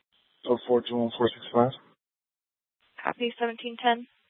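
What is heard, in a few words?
Mesa Police Department Superstition Dispatch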